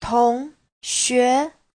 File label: "tong2xue2", Chinese